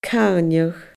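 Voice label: "caineadh", Scottish Gaelic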